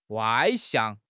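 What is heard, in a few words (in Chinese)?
"外向", Chinese; HSK6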